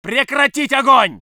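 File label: voice soviet infantry; Red Orchestra 41-45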